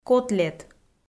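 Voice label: "котлет", Komi, female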